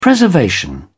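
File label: "preservation", British English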